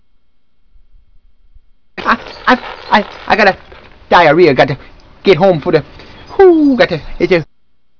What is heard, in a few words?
excited southerner; I,I,I, I gotta diarrhea, got to get home for the whooo, got to, is there